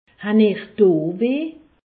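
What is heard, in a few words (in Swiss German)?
"weh", Alsace, Haut Rhin; medecine; avez vous mal là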